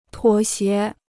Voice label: "妥协", Chinese

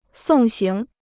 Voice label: "送行", Chinese

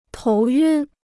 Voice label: "头晕", Chinese

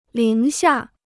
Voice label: "零下", Chinese

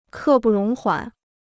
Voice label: "刻不容缓", Chinese

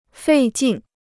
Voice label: "费劲", Chinese

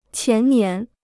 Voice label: "前年", Chinese

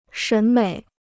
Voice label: "审美", Chinese

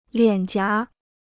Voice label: "脸颊", Chinese

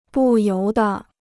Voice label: "不由得", Chinese

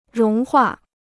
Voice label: "融化", Chinese

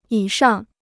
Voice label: "以上", Chinese

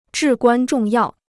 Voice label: "至关重要", Chinese

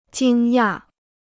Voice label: "惊讶", Chinese

